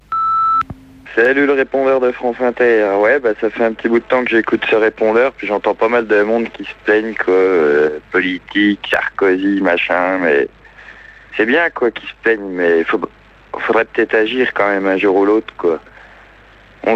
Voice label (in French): Plaintes sur le repondeur